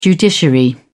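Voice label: "judiciary", British English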